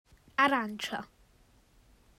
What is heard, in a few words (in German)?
Italienisch Arancia Orange